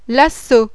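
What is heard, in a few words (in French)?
"LASSO", French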